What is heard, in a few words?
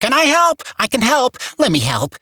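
Barks Bird, English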